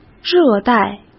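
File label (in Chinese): "热带" Mandarin Chinese; hsk; chinese-flashcards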